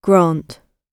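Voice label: "grant", British English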